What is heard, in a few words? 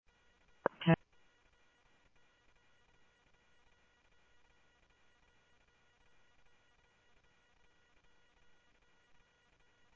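police department, scanner audio trunk; ThompsonPeakHigh; SCTSDLE PD - PD2 ScottsdalePolice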